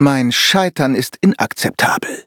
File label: defeat, de; Cookie Run